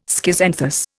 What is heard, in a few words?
"schizanthus", Indian